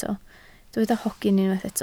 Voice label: "hogyn", Welsh